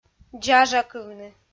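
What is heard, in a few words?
"дзажакывны", Komi, female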